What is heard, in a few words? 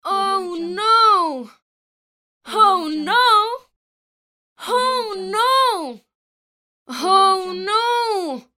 female oh no; medusamusiclab